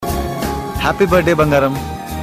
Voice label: Birthday Wishes in Telugu Happy Birthday Bangaram